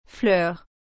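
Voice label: "fleur", French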